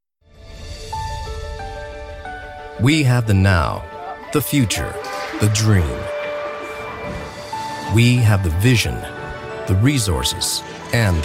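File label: male, Canadian English, narration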